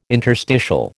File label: "interstitial", American